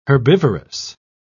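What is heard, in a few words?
"herbivorous", British English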